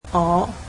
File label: "me", Cantonese